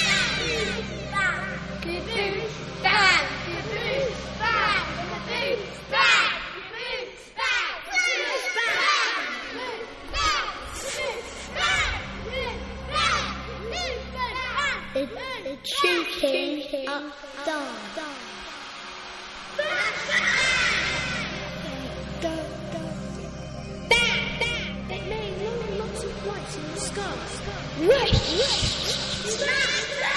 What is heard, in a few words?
fireworks poem